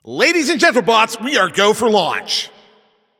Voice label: Game Start, Announcer